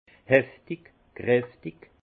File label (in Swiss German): "heftig", alsace; intense; vin